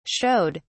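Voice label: "showed", British English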